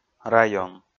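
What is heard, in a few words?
"район", Komi, male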